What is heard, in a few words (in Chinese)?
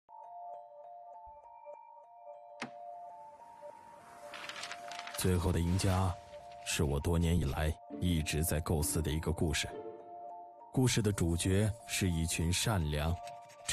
男 独白; 最后的赢家